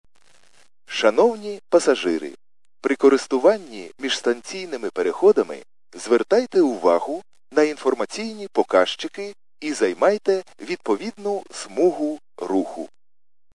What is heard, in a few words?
khs st male, subway station